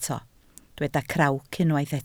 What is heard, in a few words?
"crawc", Welsh